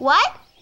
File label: "what", voice